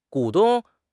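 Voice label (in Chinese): "股东", Chinese; HSK6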